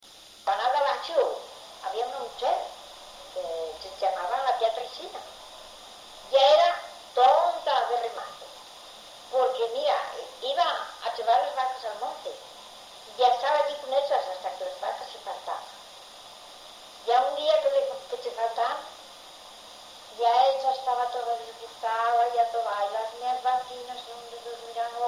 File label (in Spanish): Asturleones Bierzo; Leonese; Laciana